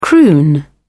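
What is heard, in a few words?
"croon", British English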